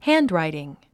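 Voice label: "handwriting", English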